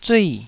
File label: "zui4", Taiwanese